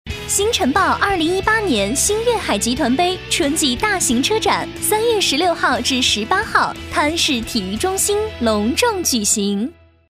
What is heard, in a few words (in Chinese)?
女, 促销; 新晨报